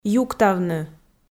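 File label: "юктавны", Komi, female; kpv audio dict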